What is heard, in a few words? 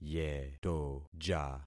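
"yetoja", concatenated syllables; Affixing experiment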